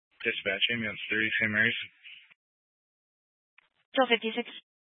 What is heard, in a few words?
Fire Department Dispatch, scanner